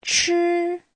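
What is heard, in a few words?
"chī", Mandarin Chinese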